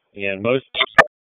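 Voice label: Raleigh Police Special Operations Tactical, p25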